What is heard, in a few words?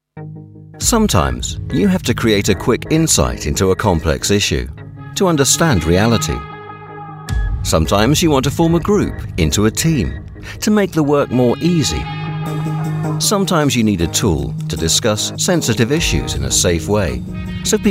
brand portfolio, Reassuring Approachable Voice Over, Commercial voic